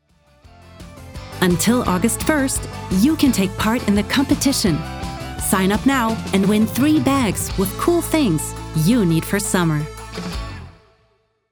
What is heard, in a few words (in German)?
Kids Werbung Englisch; KIKA